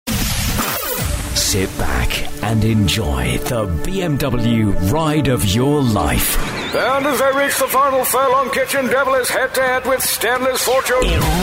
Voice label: Voiceover Showreel